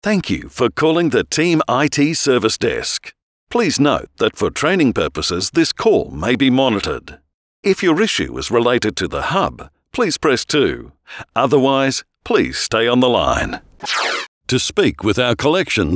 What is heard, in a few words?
IVR